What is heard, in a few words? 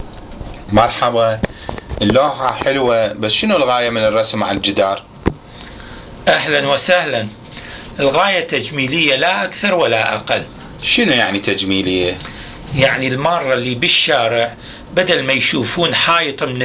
Dialogue with an Iraqi artist, Iraqi Dialect